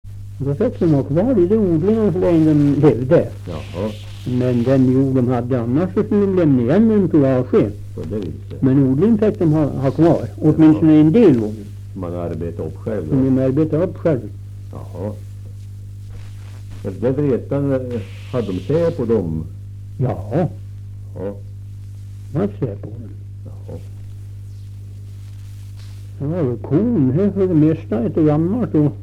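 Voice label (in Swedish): Österfärnebo Gästrikland, dialekter; Folkminnen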